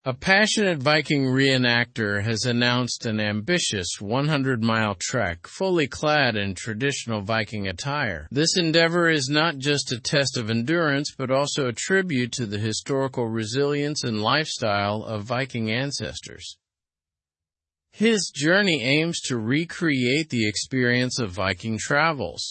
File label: english news advanced; viking re enactor embarks on historical 100 mile trek; slow